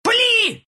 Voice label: homing missile fire, ru; dota2

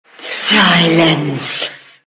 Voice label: silence; death prophet

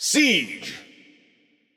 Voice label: Announcer Mode Siege; BombKing

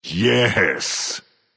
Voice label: Emote Yes; Tiger's Fury Achilles

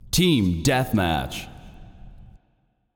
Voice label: announcer mode team deathmatch; Feyrazzle; paladins

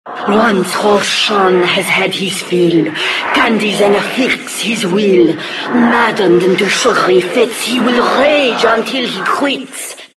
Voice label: announcer poem verse; Diretide 2012

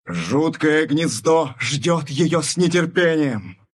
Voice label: respawn, ru; dota2

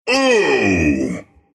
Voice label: Treant pain, ru; Dota 2

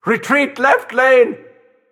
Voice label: VOX Retreat

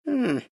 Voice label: happy; tinker

